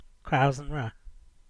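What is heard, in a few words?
"Crows An Wra", English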